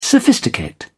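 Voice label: "sophisticate", British English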